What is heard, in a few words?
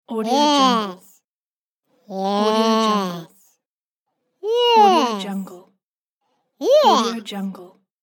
cartoon voice yes